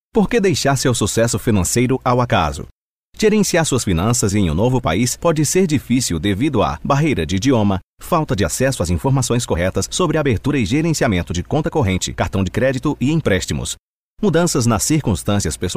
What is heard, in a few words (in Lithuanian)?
(VYR) Brazilu balsas, vyras